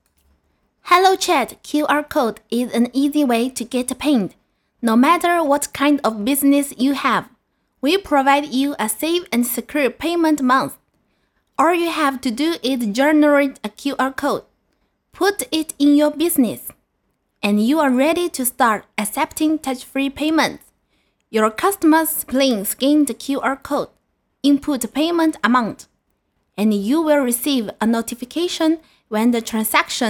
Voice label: 英文

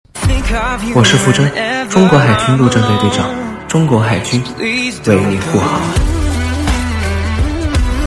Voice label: 配音

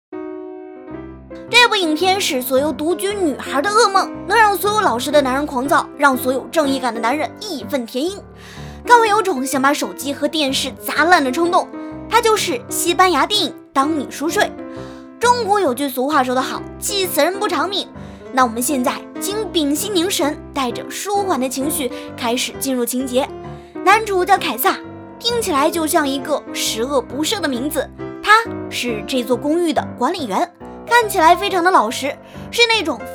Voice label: 电影解说恶搞版

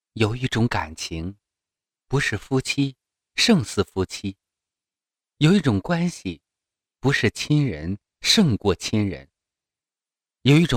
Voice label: 情感电台

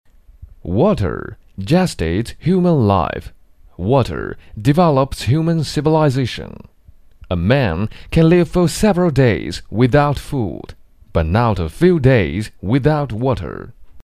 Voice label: 男英

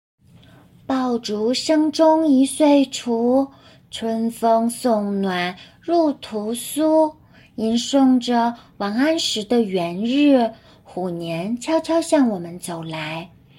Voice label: 小女孩

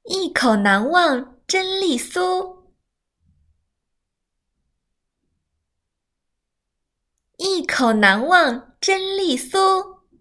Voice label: 广告词配音

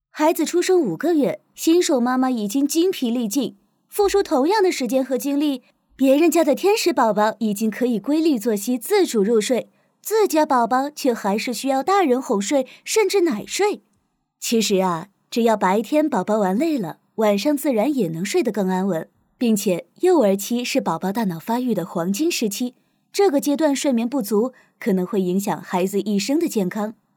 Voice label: 交流感课程广告

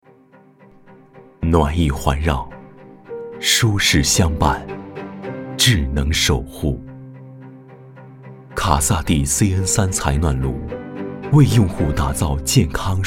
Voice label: 采暖炉广告; 卡萨帝

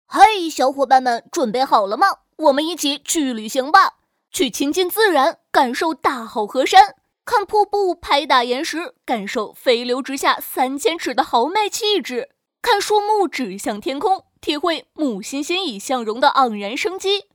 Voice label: 男童大自然

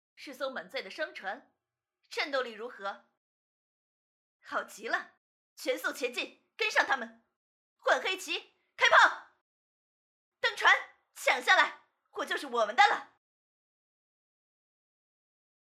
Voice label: 游戏角色广告语女王大气活泼

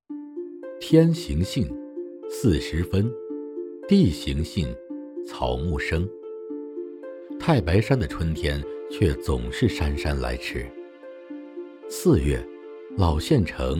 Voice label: 风光地理成熟讲述样音

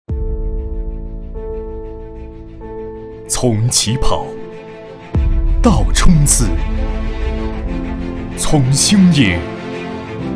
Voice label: 宣传试音

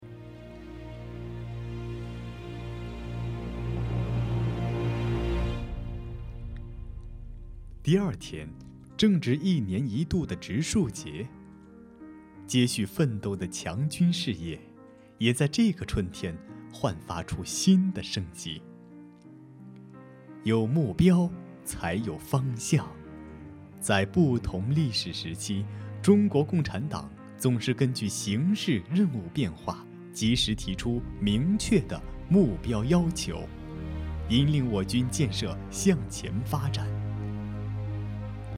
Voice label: 爱国纪录片

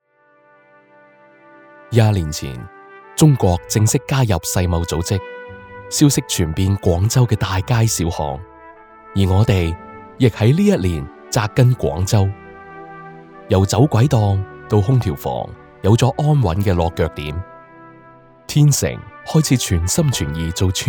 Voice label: 粤语 川菜; 天成川菜

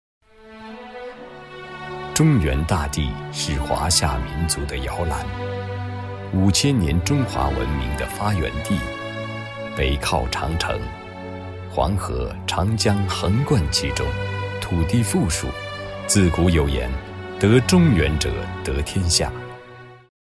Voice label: 大气流畅

